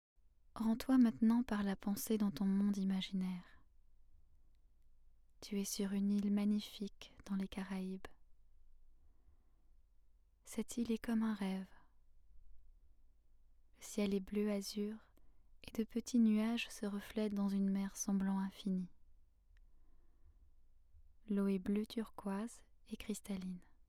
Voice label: relaxation